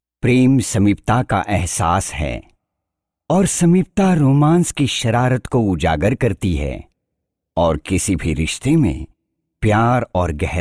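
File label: Hindi narration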